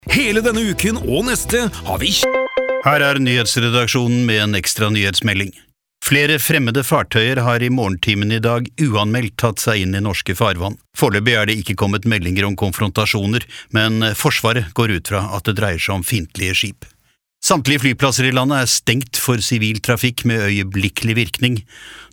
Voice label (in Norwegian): Radiospot; Skyggespill